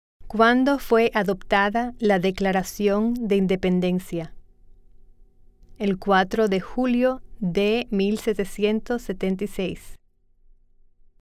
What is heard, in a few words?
Spanish